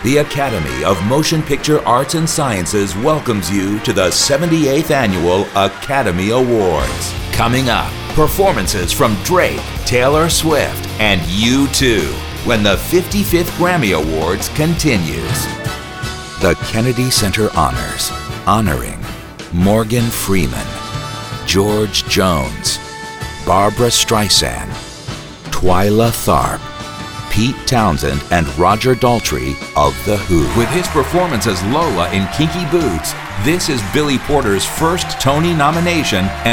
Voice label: live announcer; show and stadium announcers